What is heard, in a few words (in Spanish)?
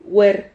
"huer", Silabas